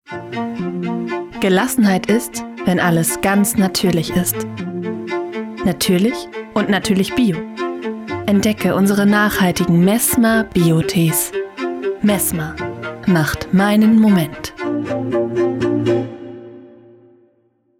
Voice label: Werbung Tee

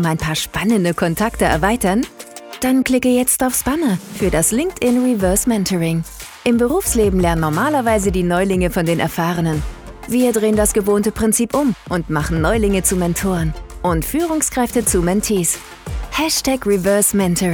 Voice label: Werbung Natuerlich jung aufstrebend; LinkedIn Reverse Mentoring

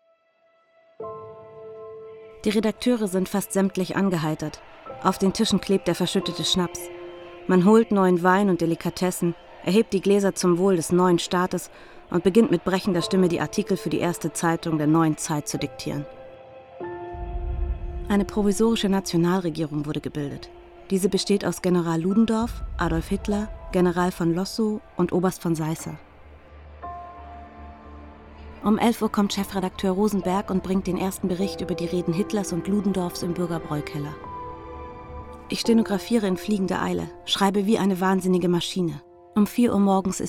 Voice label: Dokumentar OFF

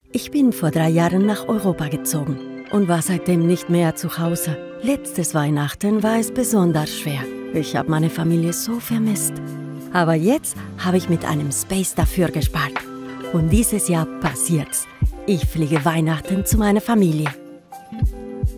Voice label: Image Film Deutsch

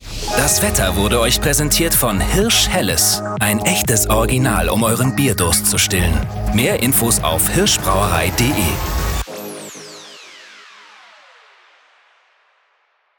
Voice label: Werbung Wettersponsoring; Hirsch Helles

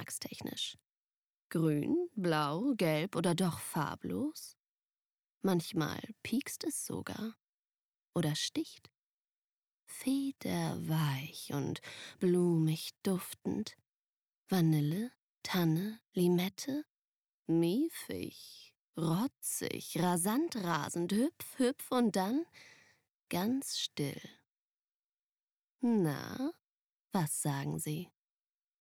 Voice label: Werbung Etwas; LOFT VOICES

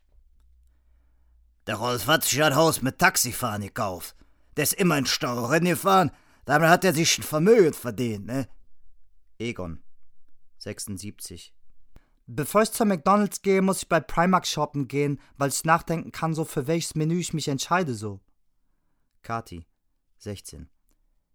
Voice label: Verschiedene Stimmen Rollen; LOFT VOICES

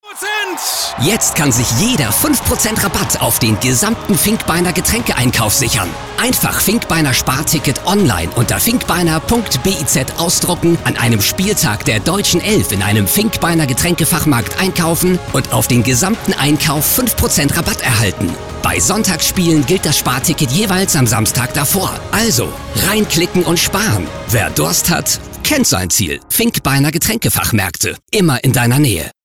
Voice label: Funkspot; FINKBEINER

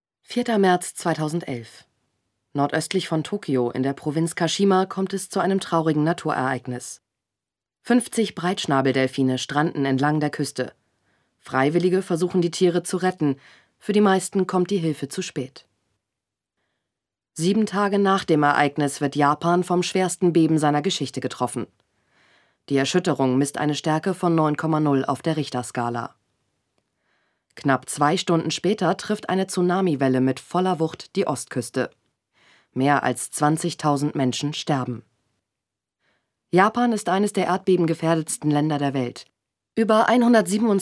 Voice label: dokumentation

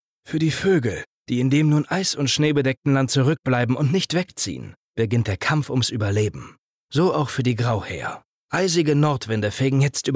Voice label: Doku NORMAL

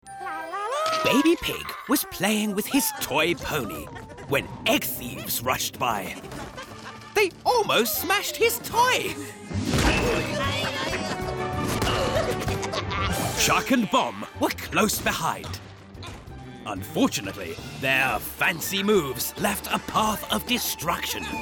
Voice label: zeichentrick erzaehler; angry birds

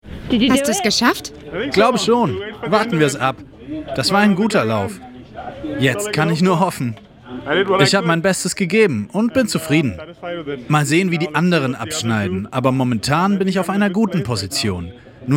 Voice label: Doku; REDBULL Dedicate

